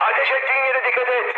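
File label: Turkish player friendly fire, mood high, speech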